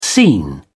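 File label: "seen", British English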